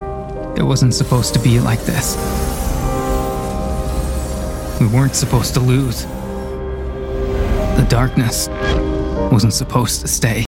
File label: Narrator